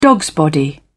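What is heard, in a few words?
"dogsbody", British English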